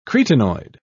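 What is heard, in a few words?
"cretinoid", British English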